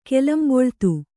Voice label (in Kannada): "ಕೆಲಂಬೊಳ್ತು", Kannada